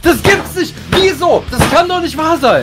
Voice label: raging